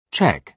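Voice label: "cheque", British English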